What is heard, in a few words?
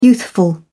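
"youthful", British English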